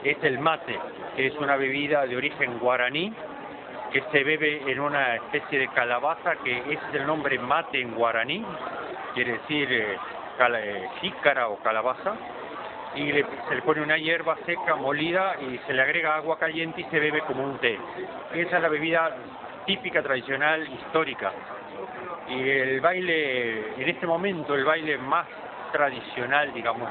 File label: Uruguay Mercedez Male